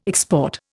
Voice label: "export", Indian